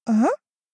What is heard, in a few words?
doubt